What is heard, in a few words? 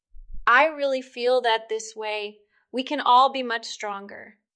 Voice Acting es F